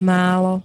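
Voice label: "málo", Slovak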